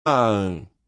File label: Phoneme Male; Commonscript; Lynguistykon